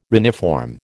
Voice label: "reniform", American

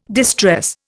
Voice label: "distress", Indian

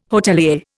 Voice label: "hotelier", Indian

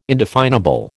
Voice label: "indefinable", American

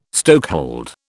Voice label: "stokehold", British

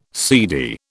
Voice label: "seedy", British

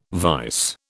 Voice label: "vise", British

